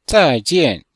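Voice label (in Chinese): "zai4jian4", Chinese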